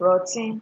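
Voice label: "rotten", Nigerian Pidgin, female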